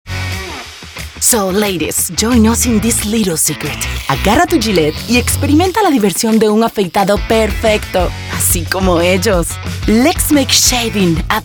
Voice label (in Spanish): travieso jugueton